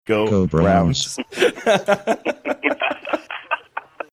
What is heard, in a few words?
saying go browns in sync with computer voice